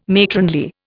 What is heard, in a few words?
"matronly", Indian